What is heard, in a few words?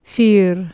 "fyr", Anglo Saxon